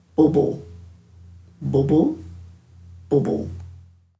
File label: "bubul", Dhurga